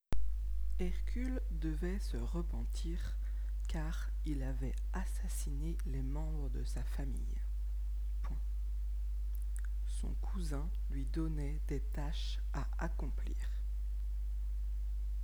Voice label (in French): dictee